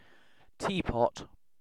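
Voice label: "teapot", British English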